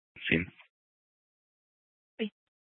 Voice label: Fire Department Dispatch; Grand Junction